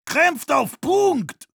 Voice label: heavy fight on cap, de